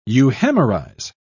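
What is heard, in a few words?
"euhemerize", British English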